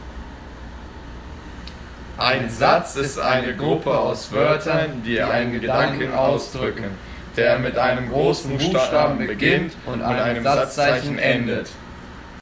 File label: a sentence in german